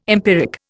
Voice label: "empiric", Indian